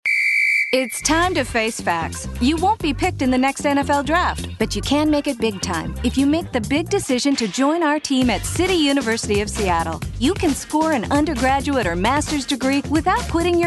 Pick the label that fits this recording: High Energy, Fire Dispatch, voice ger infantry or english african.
High Energy